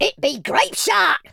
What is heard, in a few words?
"grenade", Pirate